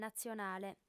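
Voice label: "natzionale", female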